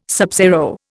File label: "subzero", Indian